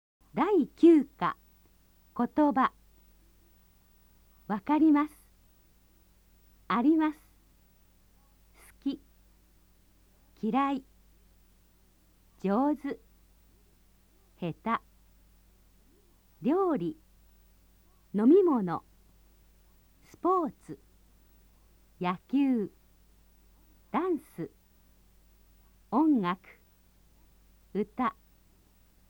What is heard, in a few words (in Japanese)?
nihongo vokabeln